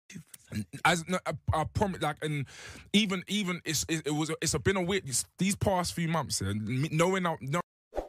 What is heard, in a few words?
stuttering meme